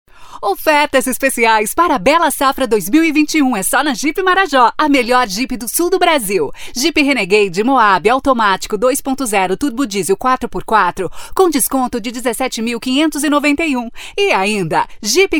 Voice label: portuguese brazilian promos

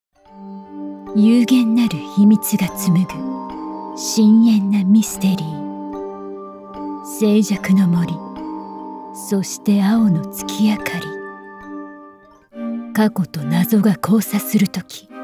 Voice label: japanese movie trailers

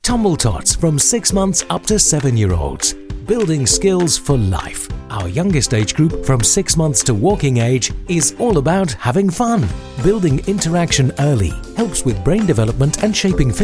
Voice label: english british commercial

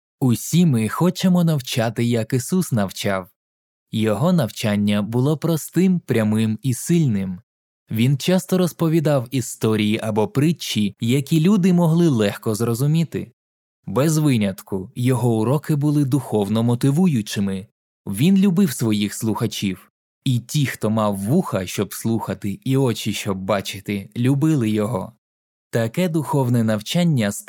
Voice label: ukrainian narration

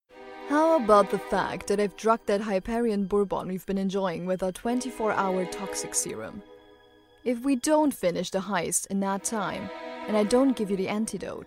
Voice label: english commercial

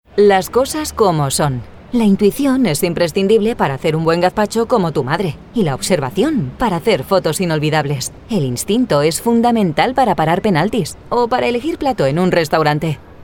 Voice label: spanish commercial; Katalanisch Spanisch; Spanien

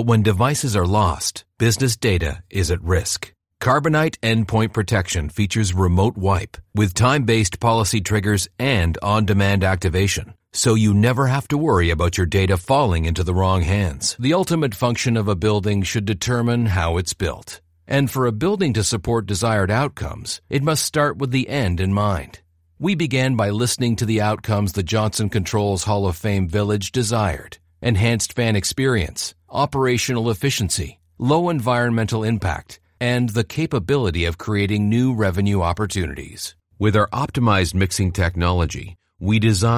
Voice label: english canadian corporate videos

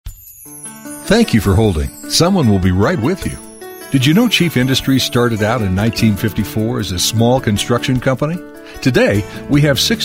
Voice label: english american ivr

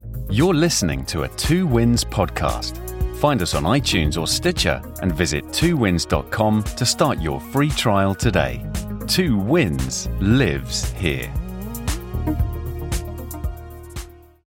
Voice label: english british podcasts

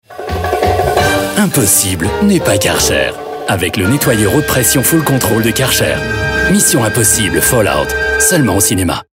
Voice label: french commercial

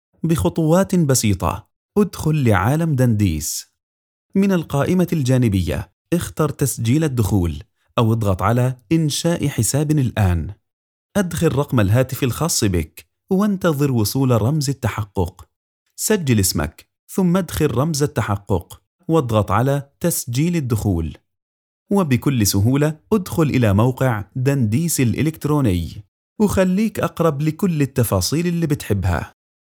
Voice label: arabic narration

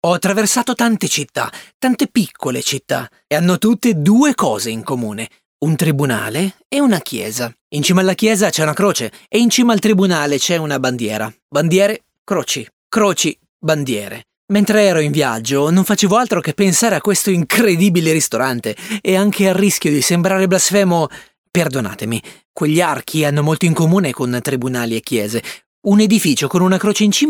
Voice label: italian audiobooks

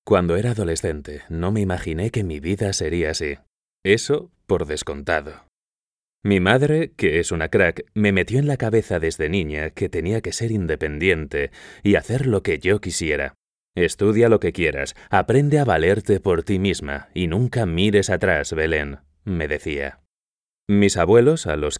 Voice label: spanish castilian audiobooks

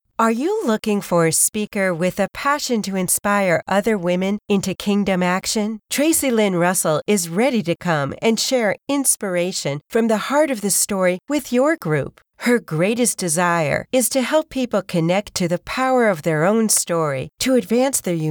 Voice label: english american podcasts

